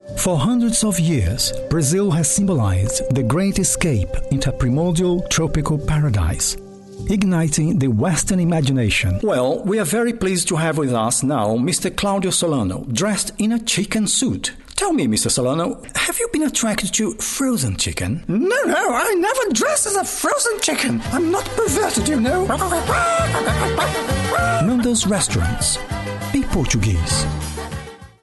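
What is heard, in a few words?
Brazilian, English; Portuguese-Brazilian, Male, Home Studio, 30s-50s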